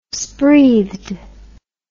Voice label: "spreathed", english